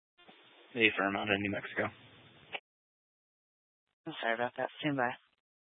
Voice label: Sheriff Dispatch, scanner; Mesa County